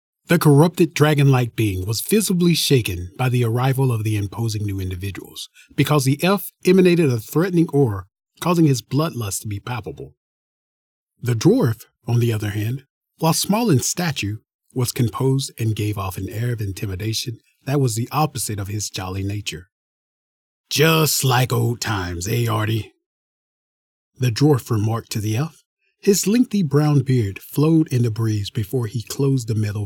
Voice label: Fiction Narration; storyteller